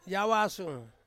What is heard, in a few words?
"awasung", Ansus; to sew